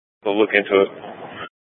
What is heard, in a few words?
Public Transit System Light Rail Ops, scanner